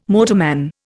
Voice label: "motorman", Indian